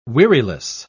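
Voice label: "weariless", British English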